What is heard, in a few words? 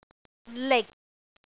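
"lik6", Cantonese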